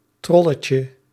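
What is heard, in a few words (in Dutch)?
"trolletje", Dutch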